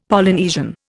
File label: "Polynesian", Indian; Dictionary